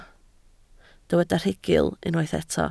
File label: "rhugl", Welsh